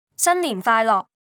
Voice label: Cantonese Happy New Year; Greetings